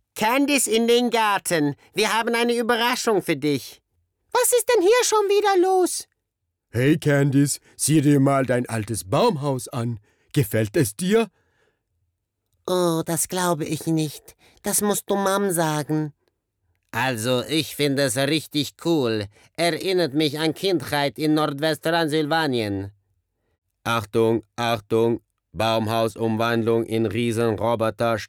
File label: German Cartoon voices